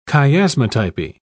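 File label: "chiasmatypy", British English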